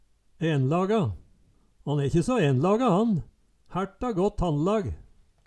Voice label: enlaga, Numedalsmål; Ordet er ubøyeleg.

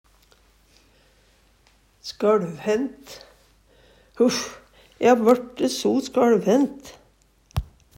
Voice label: "skøLvhent", Numedalsmål; Adjektiv

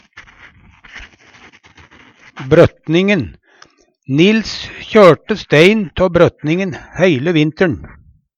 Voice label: "brøtning", Numedalsmål; Substantiv hankjønn

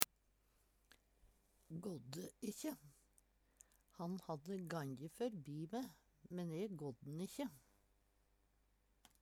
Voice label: gdde ikkje, Numedalsmål; Han hadde ganje førbi me, men e gådde`n ikkje.; la ikkje merkje til

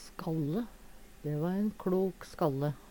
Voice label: "skalle", Numedalsmål; Substantiv hankjønn

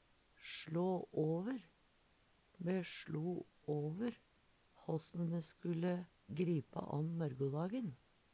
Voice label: sla aver, Numedalsmål; Uttrykk; Me sLo åver håssen me skulde gripe an mørgodagen.; Veggli

